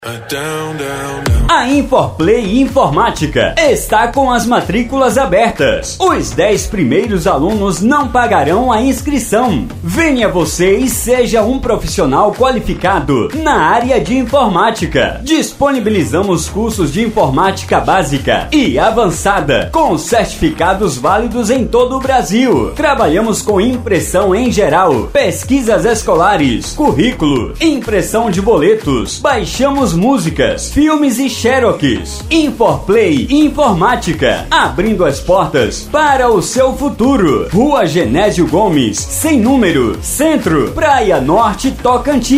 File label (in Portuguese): locutor spot